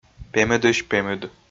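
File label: "пемыдысь пемыд", Komi, male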